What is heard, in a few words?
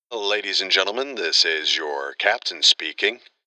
commercial pilot "this is your captain speaking"